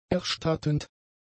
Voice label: "erstattend", German; adjectives